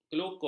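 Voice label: "kelukur", Malay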